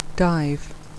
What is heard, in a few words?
"dive", English phonetics